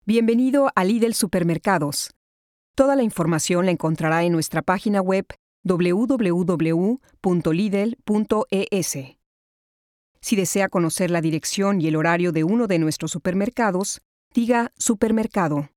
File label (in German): telefon; spanisch